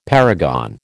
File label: "paragon", American